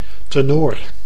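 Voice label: "tenor", Dutch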